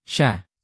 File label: "siya", tagalog; tagalog pronouns